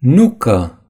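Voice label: "walnut", Romanian; gcompris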